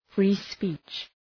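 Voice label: "free speech", English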